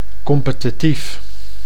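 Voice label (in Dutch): "competitief", Dutch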